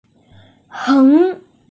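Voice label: "heng2", Mandarin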